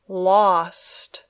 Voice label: "lost", English